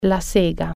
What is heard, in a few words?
saw, italian